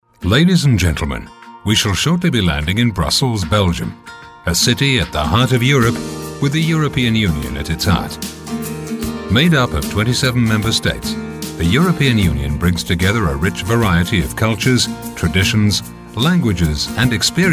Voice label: narration

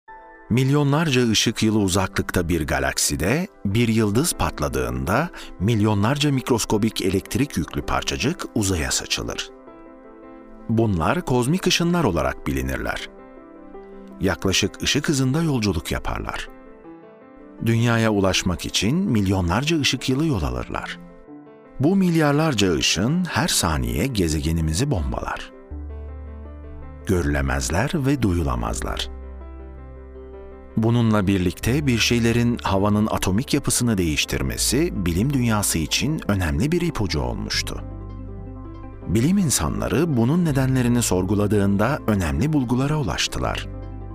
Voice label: turkish voiceover, documentary

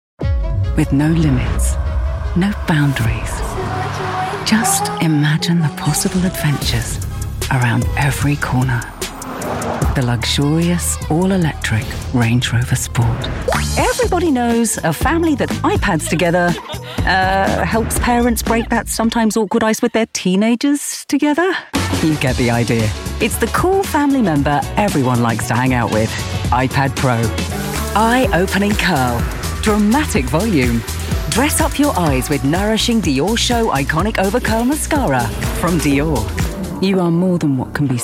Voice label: commercial us market

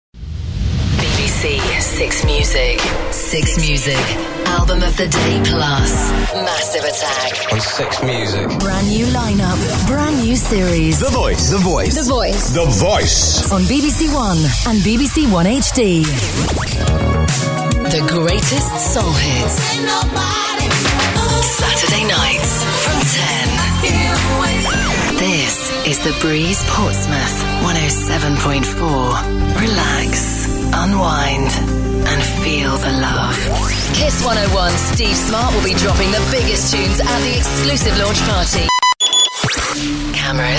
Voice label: promo and imaging

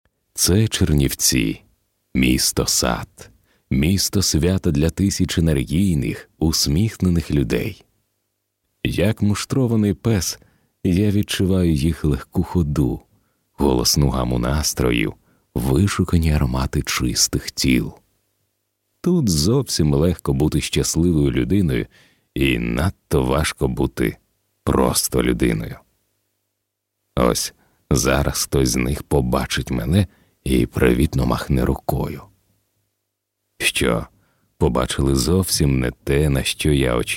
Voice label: ukranian deeper

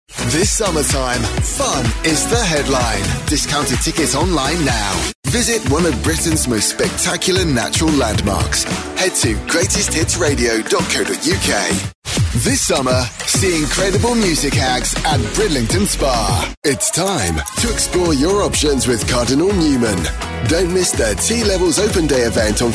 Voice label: promo vo

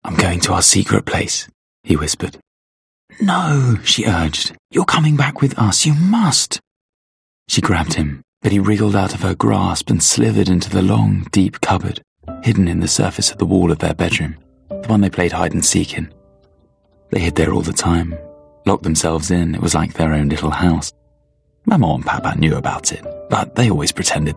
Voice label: audiobook

